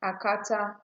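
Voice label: "akata", Nigerian Pidgin, female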